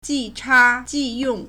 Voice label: "ji2 cha1 ji2 yong4", Chinese